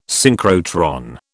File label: "synchrotron", British